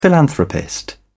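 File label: "philanthropist", British English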